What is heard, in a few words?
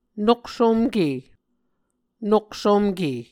"noksomke", Muscogee